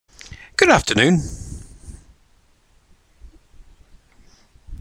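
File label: pronunciation English "good afternoon"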